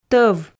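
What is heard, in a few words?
"тӧв", Komi, female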